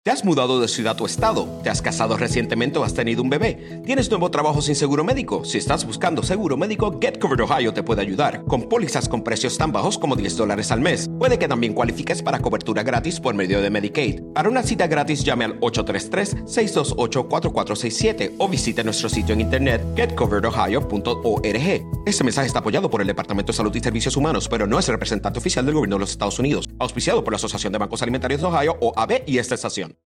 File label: SPAN radio